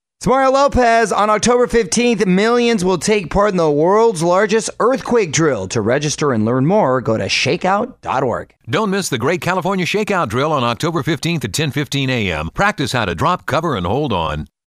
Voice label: PSA; ShakeOut